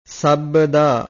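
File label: "sobbadaa", Pali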